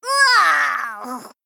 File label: Female Gnome Death